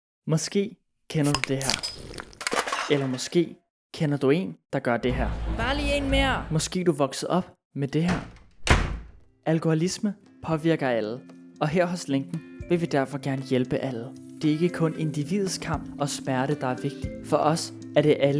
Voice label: Informercial, audio branding; audio branding for laenken